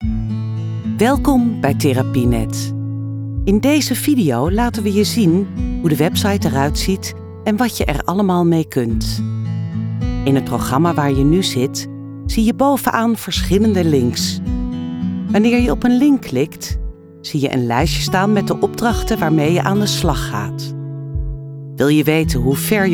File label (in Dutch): Explainer, voice over; Therapienet